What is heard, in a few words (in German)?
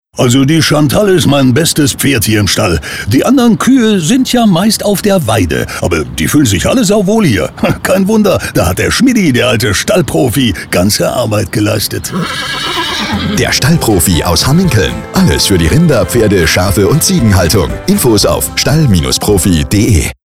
Radio Spot